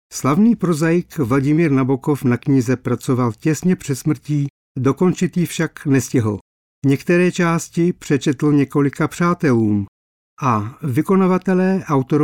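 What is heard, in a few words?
czech male narration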